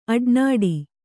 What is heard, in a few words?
"ಅಡನಾಡಿ", kannada